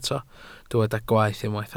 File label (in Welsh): "gwaeth", Welsh